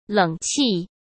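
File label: "冷气", Mandarin Chinese; chinese flashcards; hsk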